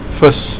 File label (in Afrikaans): "vis", Afrikaans